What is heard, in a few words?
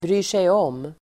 "bryr sig om", Swedish